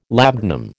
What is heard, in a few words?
"labdanum", American